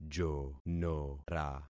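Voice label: "jonora", concatenated syllables; Affixing experiment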